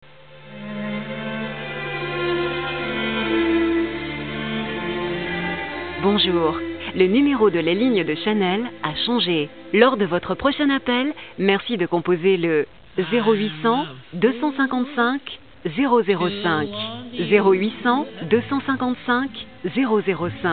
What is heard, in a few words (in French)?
GUIDE VOCAL; CHANEL